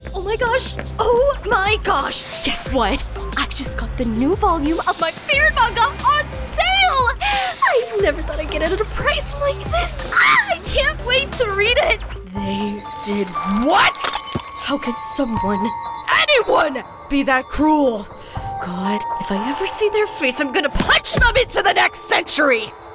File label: Character